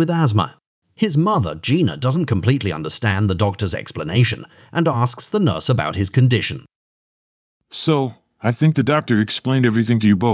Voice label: Conversation; Bedside Manner Intermediate